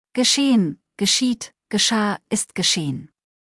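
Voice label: "geschehen", German; verben kurzliste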